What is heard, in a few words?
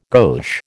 "gauche", American